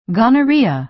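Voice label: "gonorrhea", American English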